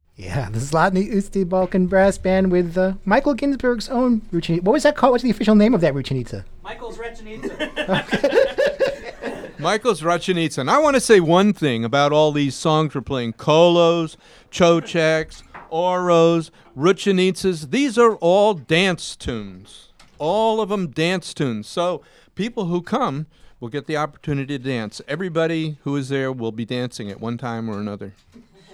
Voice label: interview; Live; WFMU; Transpacific Sound Paradise

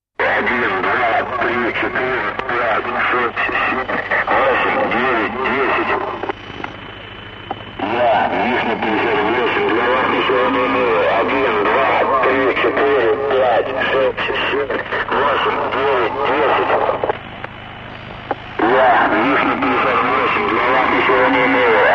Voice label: announcement

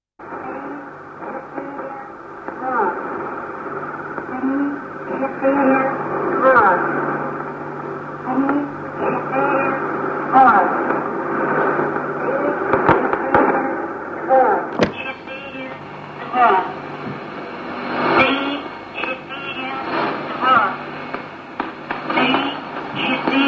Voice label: russian lady

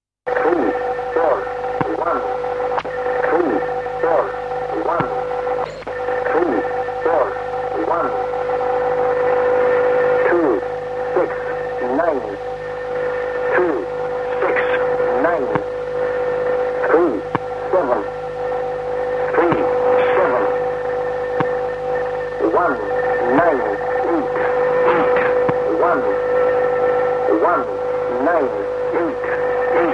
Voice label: english man

